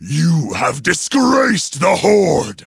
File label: jeers; garrosh